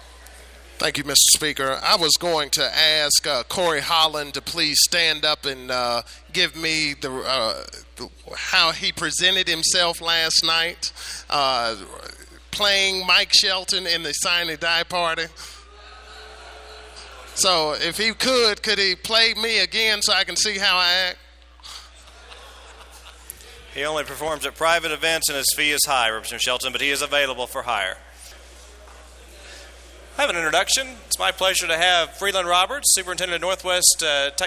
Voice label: Announcements - Recess